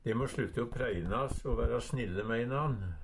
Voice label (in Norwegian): innan, Numedalsmål; dei